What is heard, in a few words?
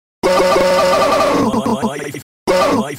stutter